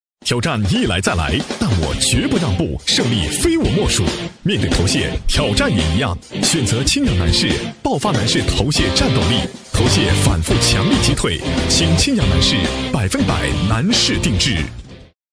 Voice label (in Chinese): 男, 广告配音, 男士洗发水, 青春激扬; 大气磁性 轻松活泼